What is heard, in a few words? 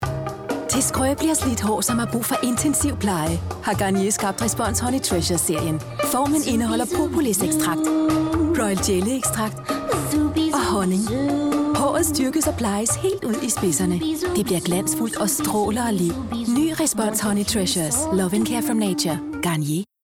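Danish advert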